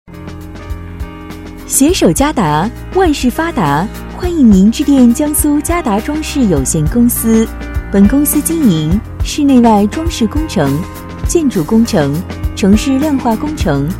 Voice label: 女 彩铃 磁性; 江苏佳达装饰有限公司

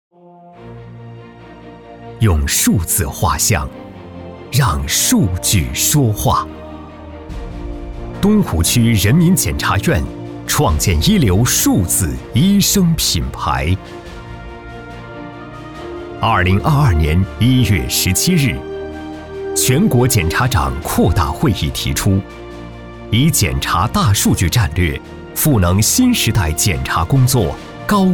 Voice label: 男 党政 人民检察院; 东西湖区人民检察院

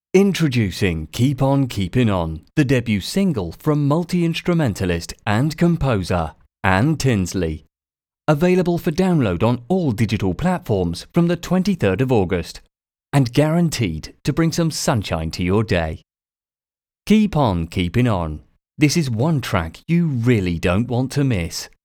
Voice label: 成熟低沉, 讲解

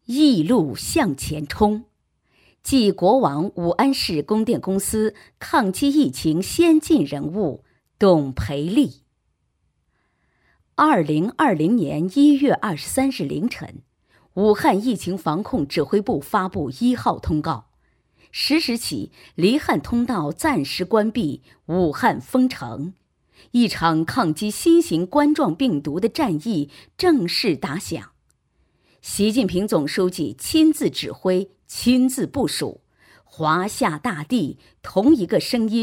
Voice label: 女 专题 抗疫; 疫-路向前抗疫

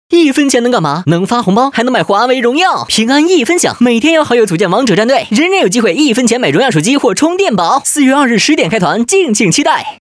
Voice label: 男 广告; 平安

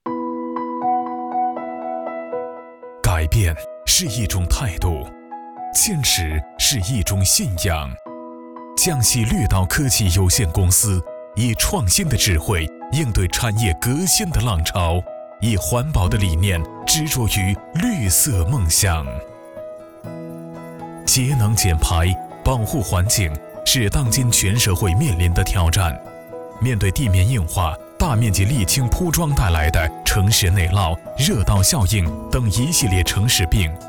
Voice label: 男 科技专题; 绿岛科技

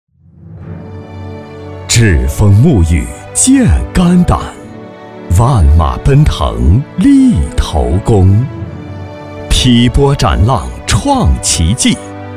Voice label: 男 专题 激情厚重

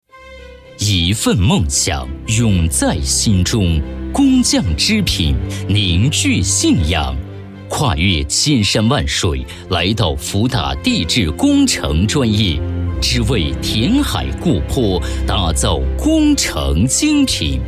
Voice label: 男 专题 地质工程专业

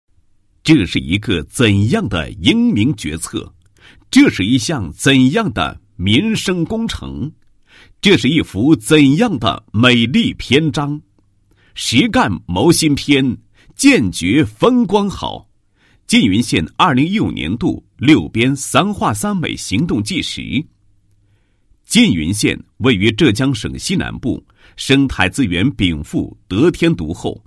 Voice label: 男 纪实; 缙云县2015年度 六边三化三美 行动

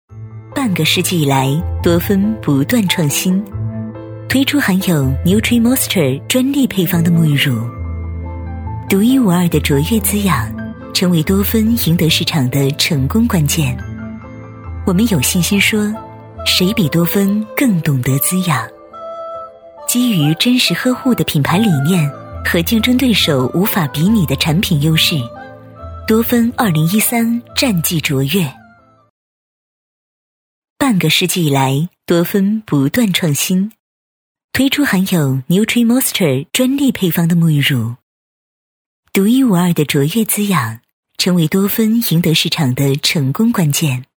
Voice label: 专题 优雅大气; 化妆品

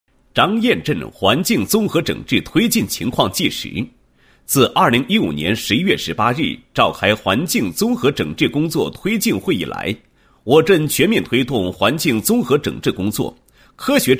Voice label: 男, 纪实; 张堰镇环境综合整治推进情况

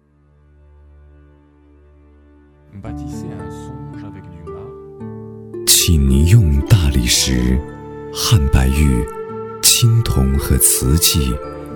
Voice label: 男 专题 低沉 浑厚 纪录片; 圆明园纪录片--片段

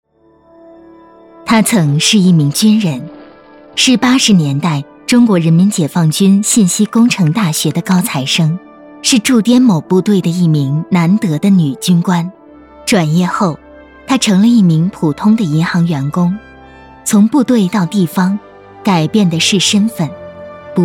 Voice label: 女 人物专题片 温柔大气

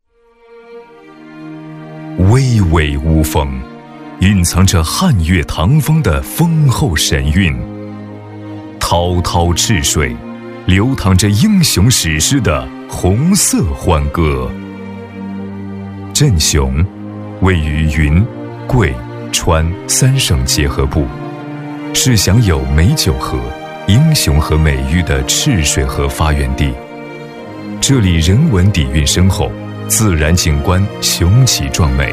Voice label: 男 专题 大气 历史; 镇雄县

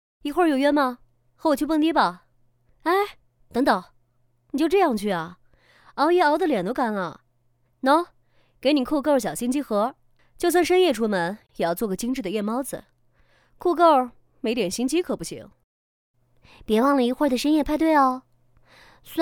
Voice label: 女,素人 广告; AHC广告

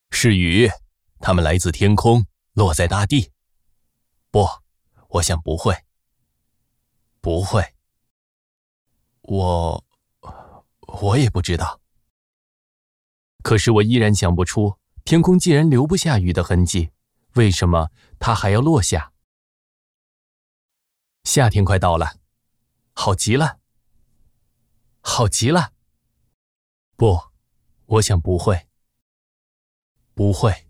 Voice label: 男,角色,大树

